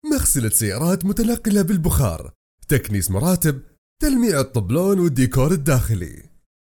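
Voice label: Arabic, general; GoLocalise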